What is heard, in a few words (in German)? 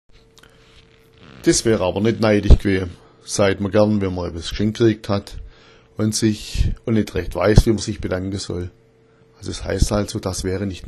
net naitich, schwaebisch